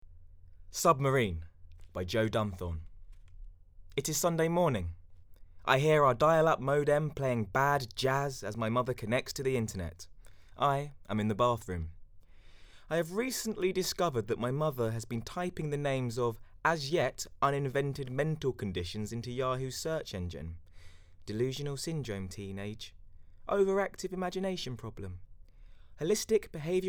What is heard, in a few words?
Book read